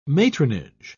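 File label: "matronage", British English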